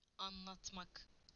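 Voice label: "to tell", Turkish; PictureDictionary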